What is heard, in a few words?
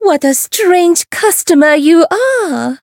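Voice encounter fear; hoozuki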